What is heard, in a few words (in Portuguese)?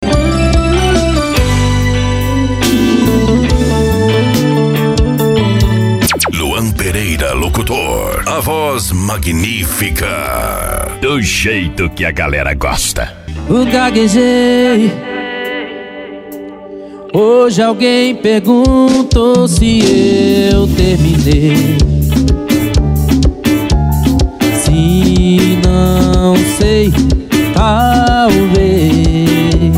locutor a voz magnifica